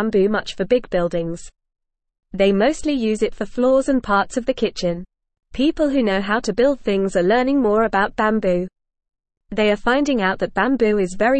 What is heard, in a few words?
English Newsroom Beginner FAST Reading; Bamboo A Strong and Eco Friendly Building Material